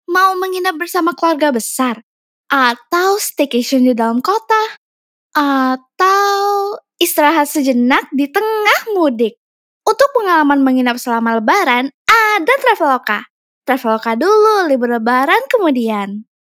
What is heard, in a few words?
INDONESIA CASUAL